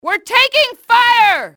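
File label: under fire, english, female; XBOX; enemy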